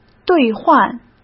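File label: "兑换", Mandarin Chinese; chinese flashcards; hsk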